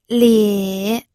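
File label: "lie", third tone, pinyin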